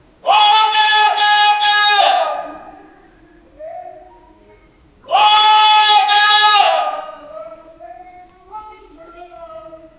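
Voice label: male chinese guard shouting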